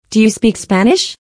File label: Do you speak Spanish, Pronunciacion en ingles